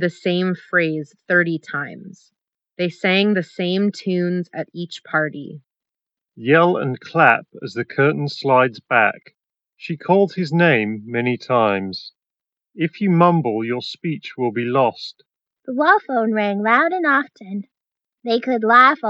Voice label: mic ideal; jbl tune 520bt

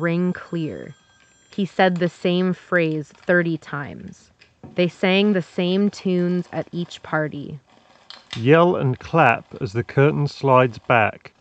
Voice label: HyperX Cloud Earbuds II Office microphone sample

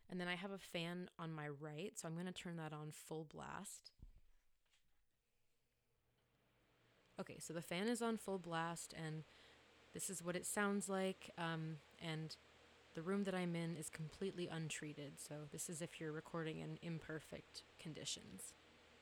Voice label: speaking microphone sample; beyerdynamic M70 Pro X

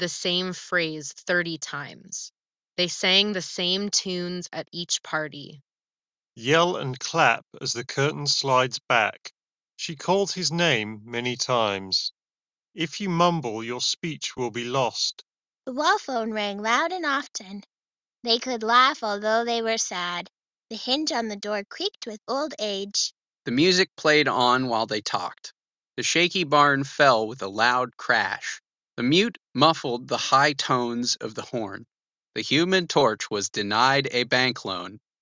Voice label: dongle Ideal microphone sample; Sony Inzone H5

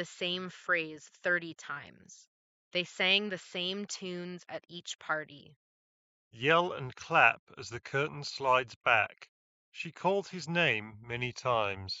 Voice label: JBL Quantum One Ideal microphone sample

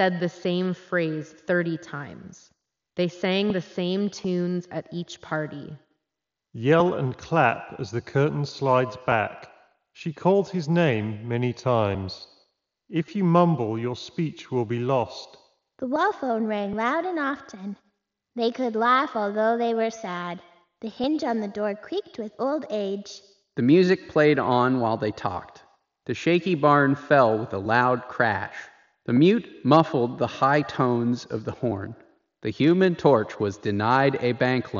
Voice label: Razer Blackshark V3 PRO reverb

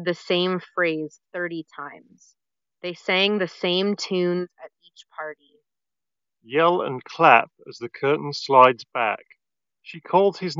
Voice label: JLab JBuds Air Pro Ideal microphone sample

